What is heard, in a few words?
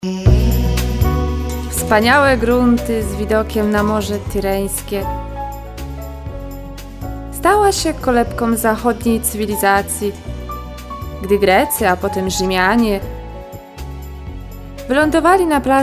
commercial